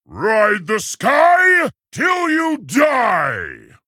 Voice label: Male Tauren Play